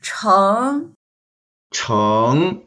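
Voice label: "cheng2", Mandarin Chinese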